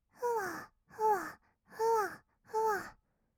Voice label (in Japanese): ふわふわ, kawaii voice; ASMR; 合いの手; 1200 kawaii voice!!